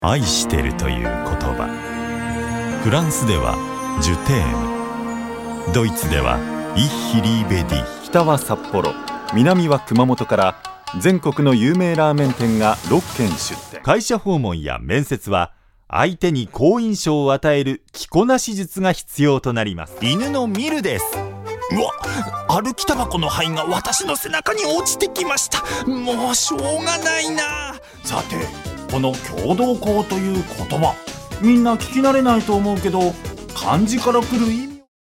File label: japanese corporate videos; japanese voice over talent